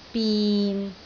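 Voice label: "bin6", Cantonese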